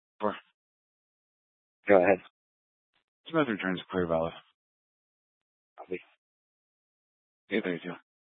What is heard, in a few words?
Police Department Dispatch; Grand Junction